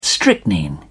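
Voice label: "strychnine", British English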